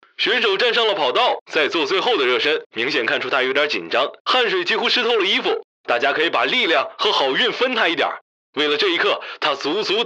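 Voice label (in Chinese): 宣传片田径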